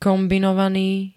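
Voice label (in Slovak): "kombinovany", Slovak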